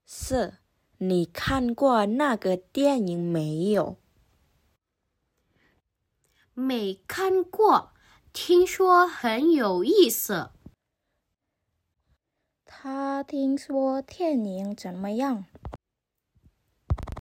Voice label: Mandarin; mandarin placement test hsk2